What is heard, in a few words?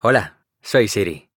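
Spanish, Spain; iOS Sounds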